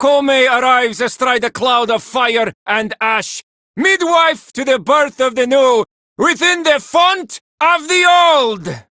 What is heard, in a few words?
Villagers Male, Cetus Invasion